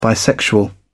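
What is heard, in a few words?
"bisexual", British English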